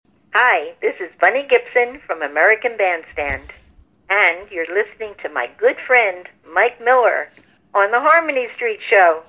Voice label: promo